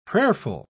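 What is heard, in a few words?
"prayerful", English, British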